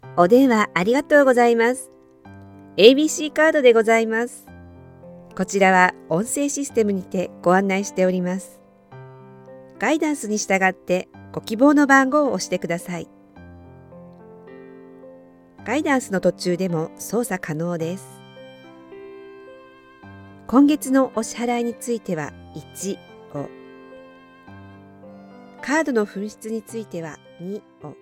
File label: IVR